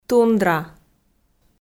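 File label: "тундра", Komi, female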